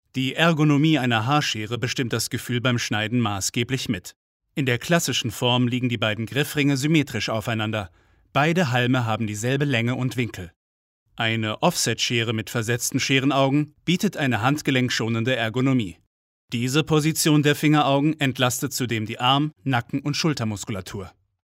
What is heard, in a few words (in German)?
Erklärfilm Scheren abgedunkelt neutral